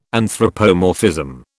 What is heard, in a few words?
"anthropomorphism", British